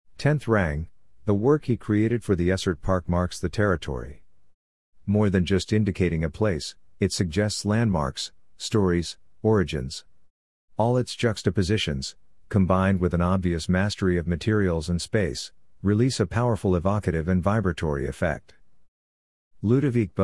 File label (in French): anglais